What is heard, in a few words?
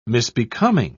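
"misbecoming", British English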